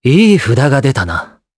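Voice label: voice, Japanese; Esker; kingsraid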